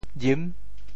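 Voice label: "jim6", Teochew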